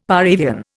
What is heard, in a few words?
"par avion", Indian; Dictionary